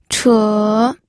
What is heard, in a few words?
"che3", pinyin